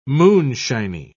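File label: "moonshiny", British English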